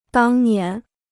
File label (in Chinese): "当年", Chinese